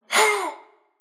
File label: anime voice